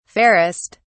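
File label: "fairest", British English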